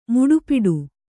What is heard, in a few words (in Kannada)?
"ಮುಡಿಪಿಡು", Kannada